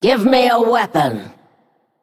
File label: Female Naga ERROR NEED WEAPON